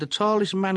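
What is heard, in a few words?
"the tallest man", Welsh English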